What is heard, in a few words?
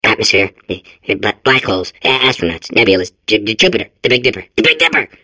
babble; portal2